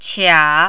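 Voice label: "qia", Mandarin, third tone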